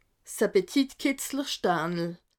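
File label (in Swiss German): "appetit steinel", Alsatian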